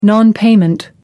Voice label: "nonpayment", en uk